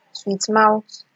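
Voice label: "sweet mouth", Nigerian Pidgin, female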